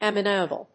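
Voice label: "ammoniacal", English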